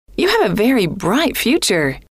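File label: you Have A Very Bright Future, female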